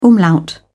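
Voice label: "umlaut", British English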